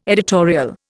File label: "editorial", Indian